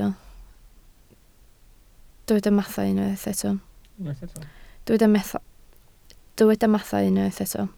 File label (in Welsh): "mathau", Welsh